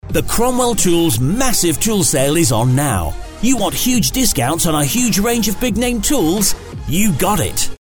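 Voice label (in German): UK English Fremdtake Cool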